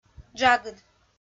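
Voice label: "джагыд", Komi, female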